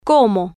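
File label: "como" Spanish, female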